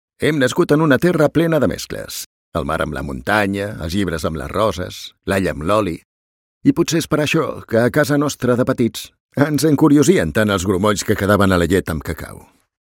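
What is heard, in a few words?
Catalan, commercial; GoLocalise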